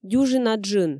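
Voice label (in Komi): "дюжина джын", Komi, female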